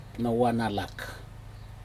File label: "nawanalak", calf muscle, Aneityum